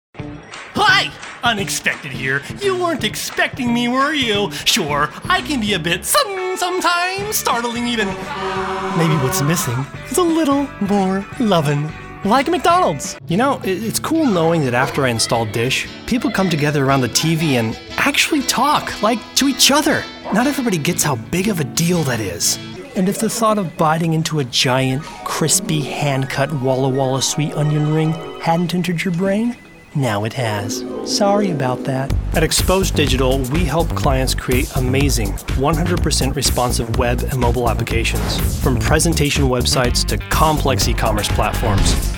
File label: Commercial